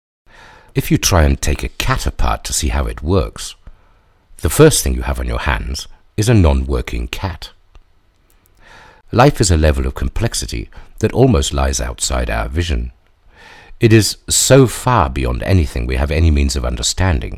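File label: British English, Kommentar Sanft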